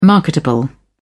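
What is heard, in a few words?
"marketable", English, British English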